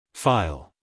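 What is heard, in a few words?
"file", American English, male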